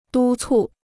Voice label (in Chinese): "督促", Chinese